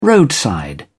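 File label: "roadside", British English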